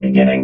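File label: "beginning", robot voice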